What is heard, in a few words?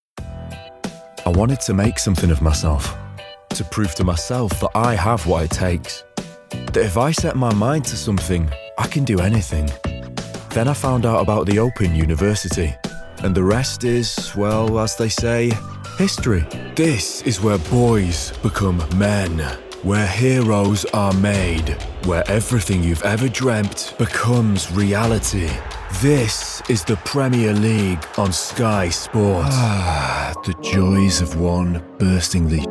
Commercial